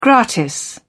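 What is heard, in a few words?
"gratis", British English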